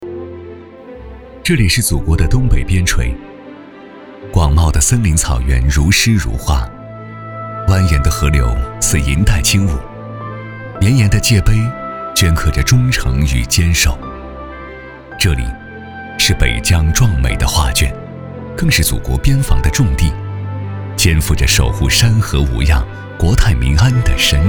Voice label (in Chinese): 男 宣传片公益tvc风格; 五色绘边关; 税惠护国安